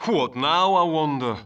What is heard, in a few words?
Male; baldurs1